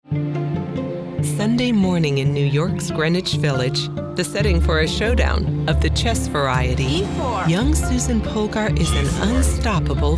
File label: narration